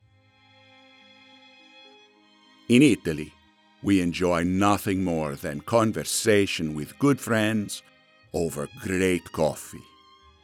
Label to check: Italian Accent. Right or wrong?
Right